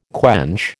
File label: "quench", American